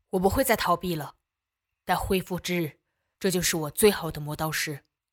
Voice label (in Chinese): 少年